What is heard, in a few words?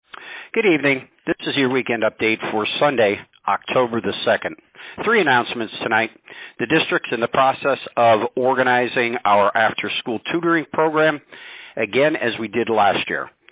Robocall